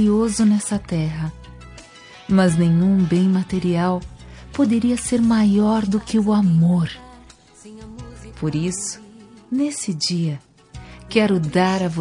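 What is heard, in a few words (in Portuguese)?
Evangélica Aniversário de Filha, female, telemensagens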